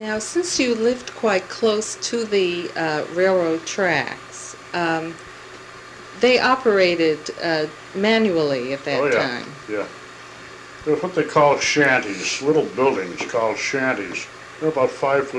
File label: Oral history with 73 year old male Concord Massachusetts; american english dialect